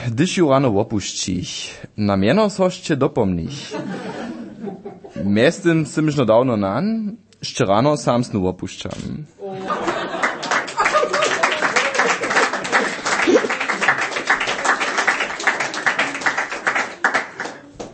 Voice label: poetry slam